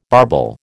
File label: "barbel", American